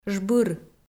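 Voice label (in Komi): "жбыр", Komi, female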